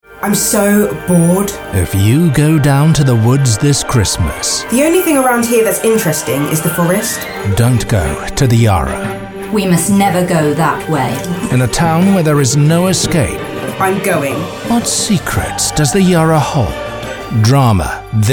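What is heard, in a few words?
English UK Promotional; GoLocalise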